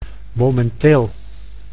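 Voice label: "momenteel", Dutch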